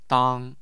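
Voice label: "dang", Mandarin Chinese, tone 1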